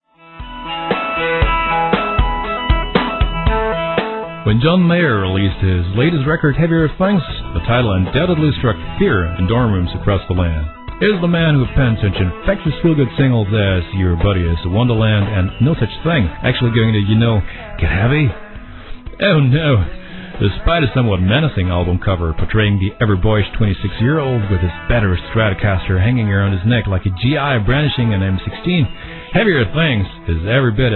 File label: info English, native english